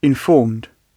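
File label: "informed", British English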